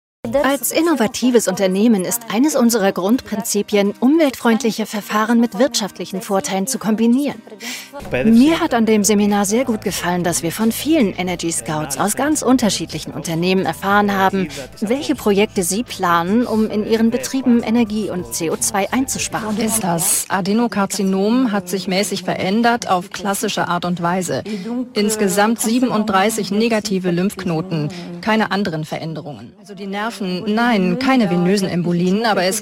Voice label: voiceover mix; Sprecher in Berlin; Muttersprache Deutsch